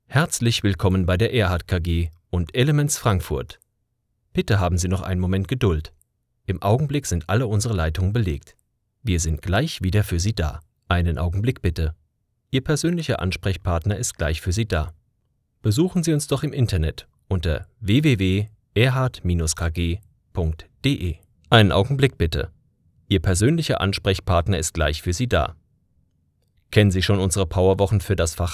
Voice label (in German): Telefonansage; Erhardt KG